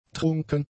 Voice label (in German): "trunken", German; adjectives